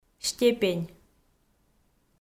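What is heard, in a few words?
"степень", Komi, female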